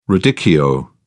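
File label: "radicchio", British English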